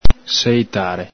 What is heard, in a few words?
"seitare", Veneto